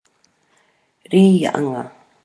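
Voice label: "rianga", Maori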